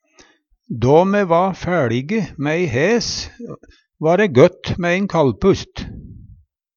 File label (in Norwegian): kallpust, Numedalsmål